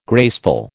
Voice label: "graceful", American

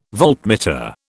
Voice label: "voltmeter", British

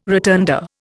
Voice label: "rotunda", Indian

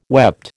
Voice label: "wept", American

